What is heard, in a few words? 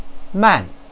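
"man4", Chinese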